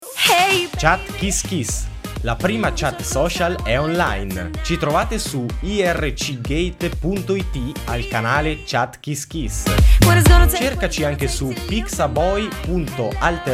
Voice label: spot chat kiss kiss